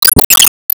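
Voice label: Voice Emo Praise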